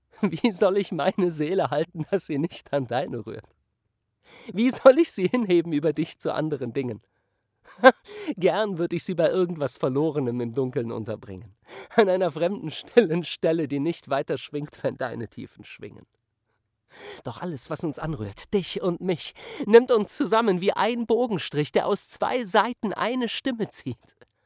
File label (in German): Sprecher Emotion Freude